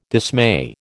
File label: "dismay", American